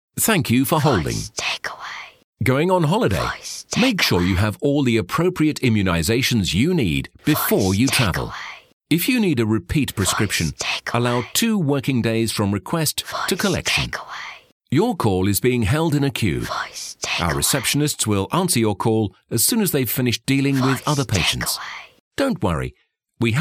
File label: Doctors Rich Male